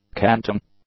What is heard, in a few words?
"canting", English; adjectives